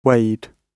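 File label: "weighed", British English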